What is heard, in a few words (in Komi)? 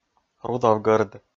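"рудовгӧрд", Komi, male; kpv audio dict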